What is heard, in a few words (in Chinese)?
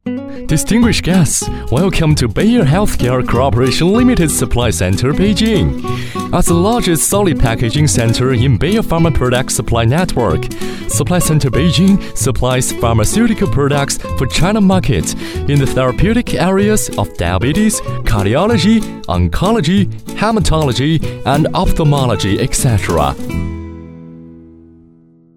男英 宣传片 病毒; 英语; 飞碟说BAYER